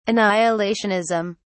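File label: "annihilationism", British English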